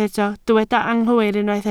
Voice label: "anghywir", Welsh